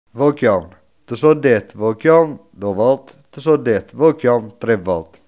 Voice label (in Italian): vai piano, coratino